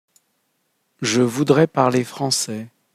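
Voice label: pronunciation French je voudrais parler français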